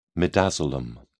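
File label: "midazolam", British English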